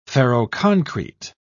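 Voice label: "ferroconcrete", English, British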